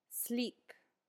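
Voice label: "sleep", English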